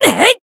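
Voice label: Voice attack, Japanese; clarte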